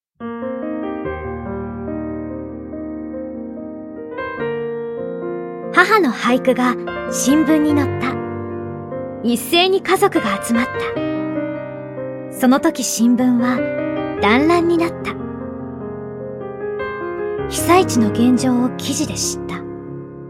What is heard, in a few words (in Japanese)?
ナレーション